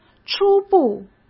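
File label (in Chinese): "初步", Mandarin Chinese; hsk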